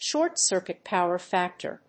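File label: "short-circuit power-factor", English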